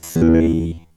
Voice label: Vocoder; construct assets